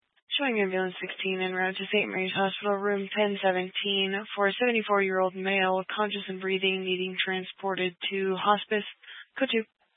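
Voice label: Fire Dispatch, Scanner audio recording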